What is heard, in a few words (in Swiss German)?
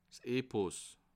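"epos", Bas Rhin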